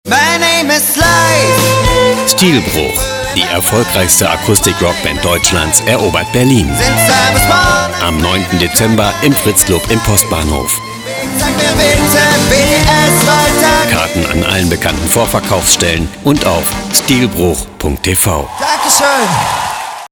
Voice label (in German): Entertainment Radiowerbung